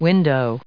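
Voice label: "window", English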